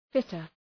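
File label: "fitter", English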